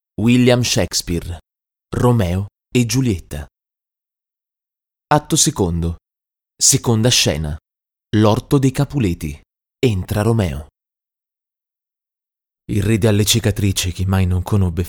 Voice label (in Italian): audiolibri